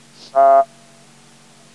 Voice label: fricative